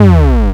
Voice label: "bruh"